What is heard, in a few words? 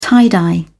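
"tie-dye", British English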